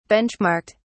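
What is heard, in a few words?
"benchmarked", British English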